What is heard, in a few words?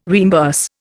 "reimburse", Indian